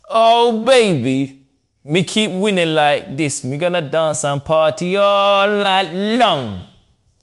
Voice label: happy, speech; fertig classic games